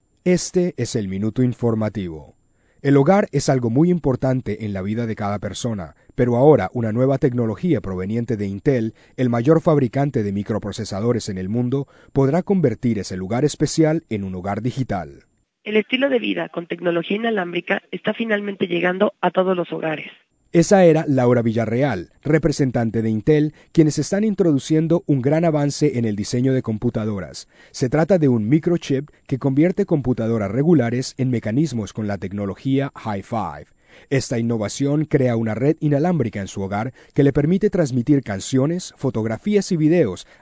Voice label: audio newsrls spanish; intel